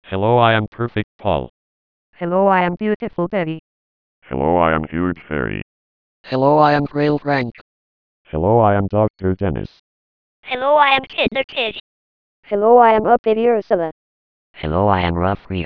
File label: DECtalk USB Demo Recreated with DECtalk 4.63